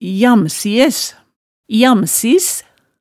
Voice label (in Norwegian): "jamsis", hemsedalsmaalet